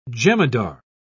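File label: "jemadar", British English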